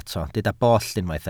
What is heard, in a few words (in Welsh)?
"bollt", Welsh